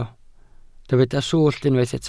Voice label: "swllt", welsh